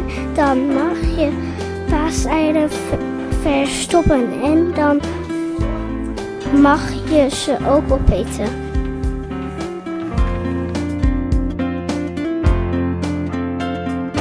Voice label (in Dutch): Pasen aankondiging